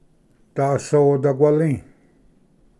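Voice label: "dasodaquali", Cherokee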